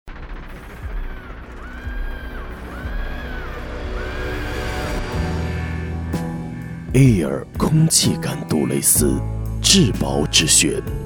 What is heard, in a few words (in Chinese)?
广告 磁性 高级; 杜蕾斯